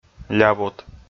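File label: "лявот" Komi, male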